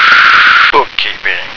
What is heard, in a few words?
"bookkeeping", American